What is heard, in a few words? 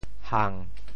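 "hang7", Teochew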